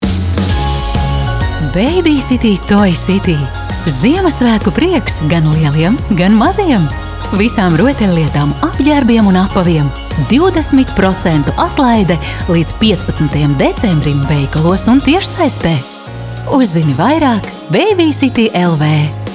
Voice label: Radio LV